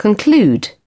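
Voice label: "conclude", British English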